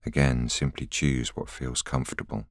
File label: voiceover